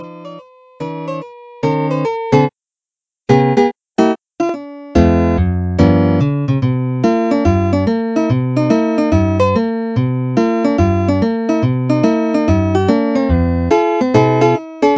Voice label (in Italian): legnano dialetto